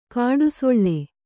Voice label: "ಗುಡಿಸಿಲು", Kannada